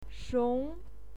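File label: "shong", Mandarin Chinese, second tone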